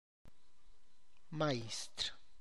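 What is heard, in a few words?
Tlacochahuaya Zapotec; master bricklayer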